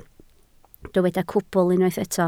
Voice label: "cwbl", Welsh

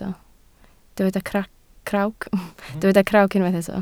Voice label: "crawc", Welsh

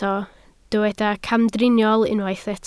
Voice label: "camdriniol", Welsh

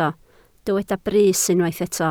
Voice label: "brys", Welsh

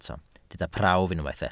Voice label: "prawf", Welsh

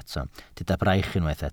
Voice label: "braich", Welsh

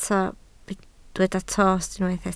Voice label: "tost", welsh

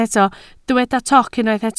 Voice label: "toc", Welsh

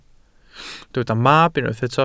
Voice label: "mab", Welsh

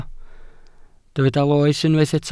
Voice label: "loes", Welsh

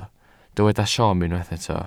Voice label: "siom", Welsh

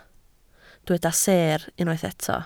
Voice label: "seer", Welsh

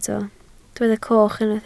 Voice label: "coch", Welsh

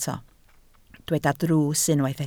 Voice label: "drws", Welsh

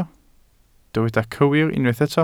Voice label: "cywir", Welsh

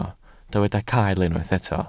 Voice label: "cael", Welsh

